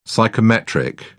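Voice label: "psychometric", British English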